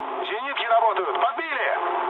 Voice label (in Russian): damaged aa, mood low, speech ru; War Thunder